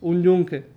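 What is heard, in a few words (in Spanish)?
"un yunque", Cuba, Havanna; Kuba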